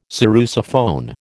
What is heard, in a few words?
"sarrusophone", American